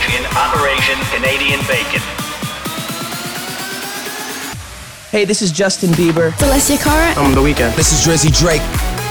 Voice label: SWEEPER CANADAS HOTTEST STATION